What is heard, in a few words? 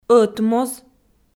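"ӧтмоз" Komi, female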